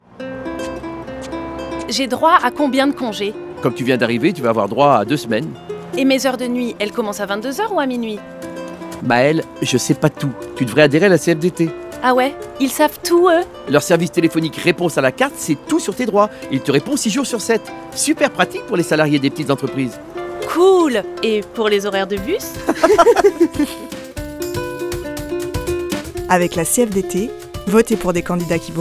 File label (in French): Voix animation; TPE CFDT; Direction Eric Laugérias